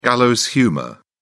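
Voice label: "gallows humour", British English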